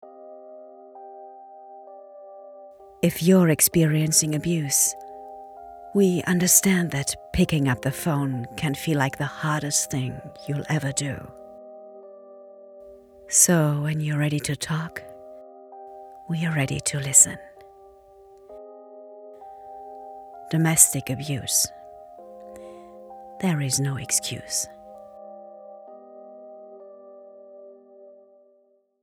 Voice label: PSA en

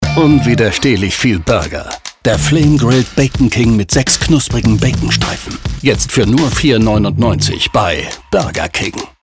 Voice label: VoiceOver; Burger King

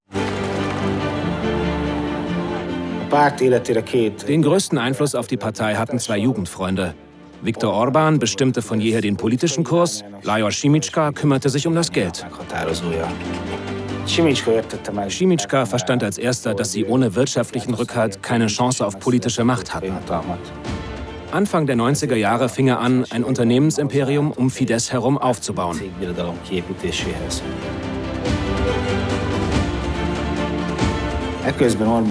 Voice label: Voice Over; Ungarn; LOFT VOICES

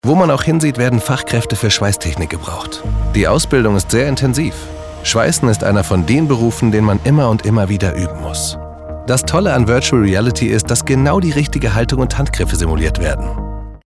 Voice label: Commercial, Commercial (Werbung), German; voice; TV-Spot - Meta X

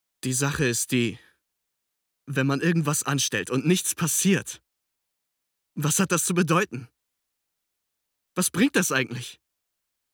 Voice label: drama

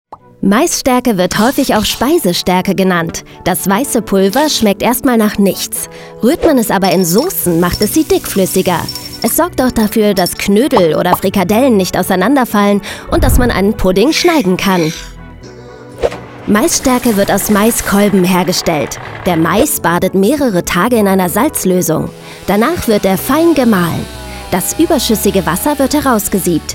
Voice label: Voiceover; Schmecksperimente

